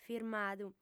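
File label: "firmadu", Sardinian, female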